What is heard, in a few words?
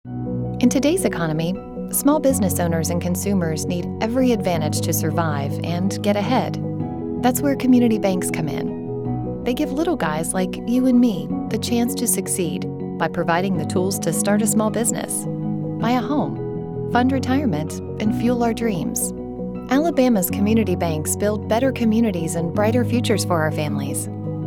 radio ad; icba; alabama